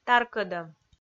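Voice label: "таркӧдӧм", Komi, female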